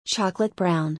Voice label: "Chocolate Brown", English

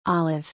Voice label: "Olive", English

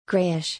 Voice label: "Grayish", English

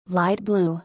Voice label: "Light Blue", English

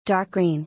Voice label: "Dark Green", English; color-in-english

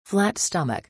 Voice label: "Flat Stomach", English; six-pack-in-english

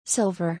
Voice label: "Silver", English

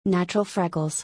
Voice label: "Natural freckles", English

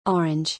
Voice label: "Orange", English

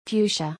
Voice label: "Fuchsia", English; color-in-english

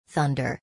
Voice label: "Thunder", English; thunder-and-lightning-in-english

